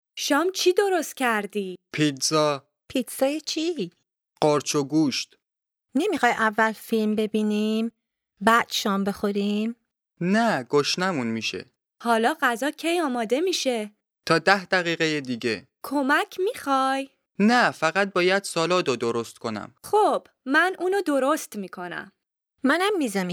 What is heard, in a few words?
Dialogue lesson Farsi